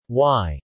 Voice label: "Y", male